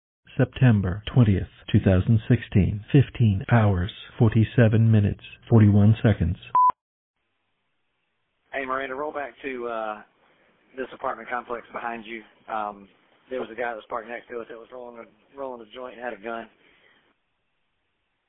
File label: Metro dispatch